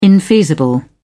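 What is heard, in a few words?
"infeasible", British English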